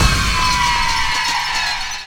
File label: VOICE, VOICE-FX